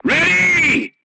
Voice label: voice message charged, crew tanks portuguese